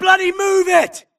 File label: grunt grenade response; imc; Titanfall 2